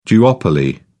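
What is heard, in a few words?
"duopoly", British English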